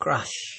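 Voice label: "crash", Nigerian Pidgin, male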